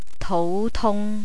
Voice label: "toutung", Cantonese

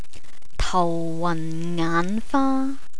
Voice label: "tauwanngaanfaa", Cantonese

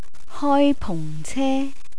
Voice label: "hoipungce", Cantonese

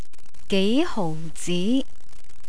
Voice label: "geihozi", Cantonese

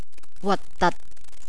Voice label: "watdat", Cantonese; feeling

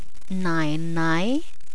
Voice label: "naainaai", Cantonese; address

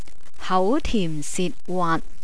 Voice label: "hautimsitwaat", Cantonese

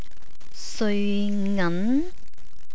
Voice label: "seoingan", Cantonese

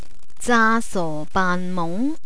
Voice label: "zaasobaanmung", Cantonese

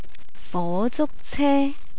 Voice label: "fozukce", Cantonese

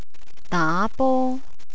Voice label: "daabo", Cantonese; entertainment

